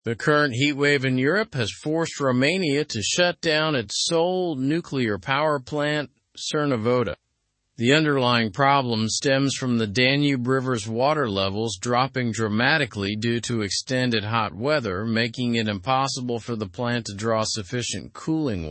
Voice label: english news advanced; romania s nuclear plant shut amid danube water crisis; slow